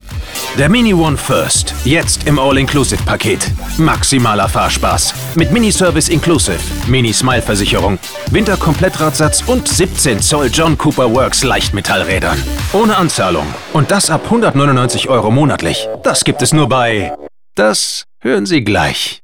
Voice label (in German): Funkspot; Mini One First